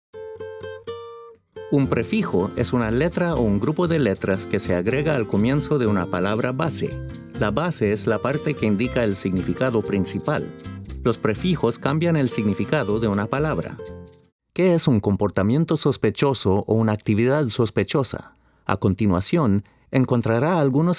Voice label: eLearning Spanish, voiceover